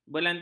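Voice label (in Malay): "belantan", Malay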